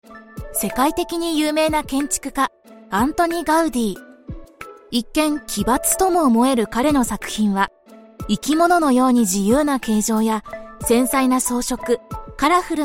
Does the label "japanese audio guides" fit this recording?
Yes